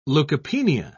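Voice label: "leukopenia", British English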